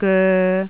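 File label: "goe3", Cantonese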